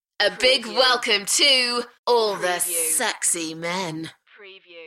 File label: UK female A big welcome to all the sexy men; beanstalk audio